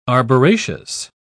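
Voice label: "arboraceous", British English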